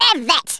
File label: "dragonpunch", Speech, Geezer; Worms Armageddon